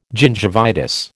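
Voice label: "gingivitis", American English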